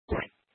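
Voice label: Police Dispatch, Scanner audio; Police 1 Dispatch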